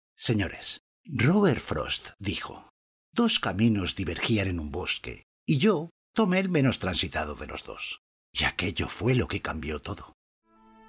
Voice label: TV Spots